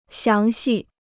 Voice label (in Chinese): "详细", Chinese